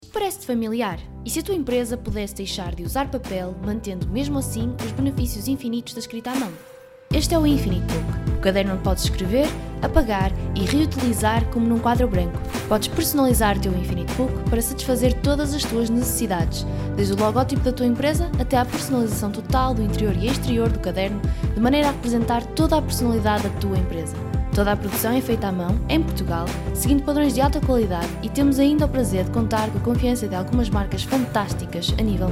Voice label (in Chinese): 葡萄牙语女 外语 欧州 轻快